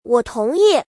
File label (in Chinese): 我同意, 小孩语音